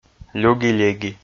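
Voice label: "люги-леги" Komi, male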